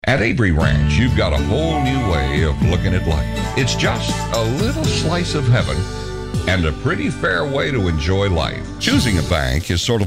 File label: Commercial, voiceover